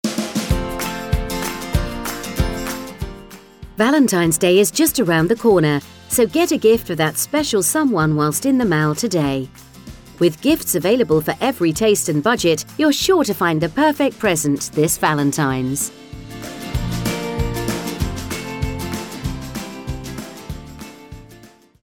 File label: Valentines Day, Female